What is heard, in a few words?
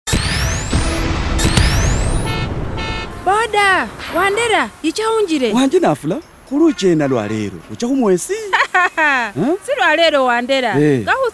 Taking Charge SAMIA, adolescent health campaign radio spots; BOLD